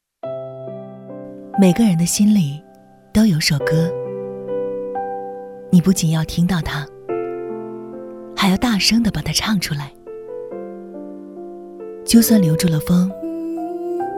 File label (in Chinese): 情感旁白走心